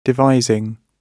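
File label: "devising", British English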